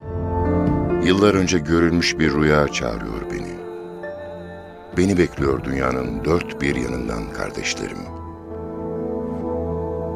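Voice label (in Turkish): duygusal motive, seslendirme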